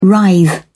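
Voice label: "writhe", English, British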